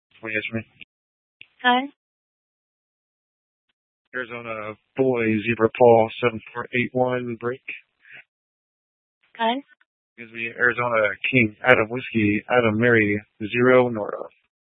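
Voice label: Tempe police department, scanner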